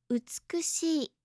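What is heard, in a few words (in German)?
"utsukushii", japanische Mädchennamen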